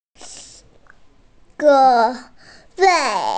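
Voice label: "zuò wèi", Mandarin Chinese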